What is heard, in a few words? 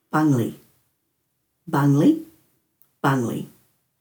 "bangli", Dhurga